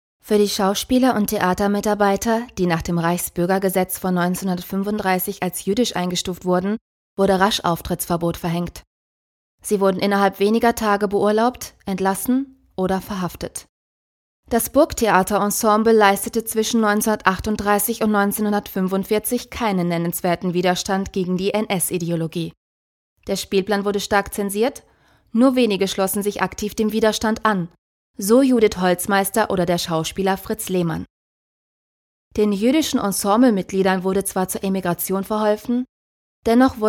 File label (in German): doku